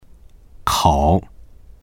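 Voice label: "kou3", Chinese; 口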